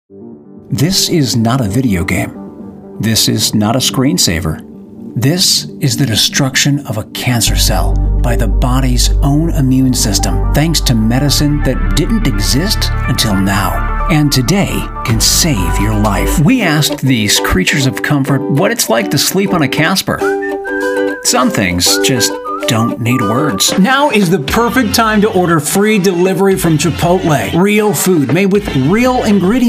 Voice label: Commercial